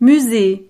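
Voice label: "musee", French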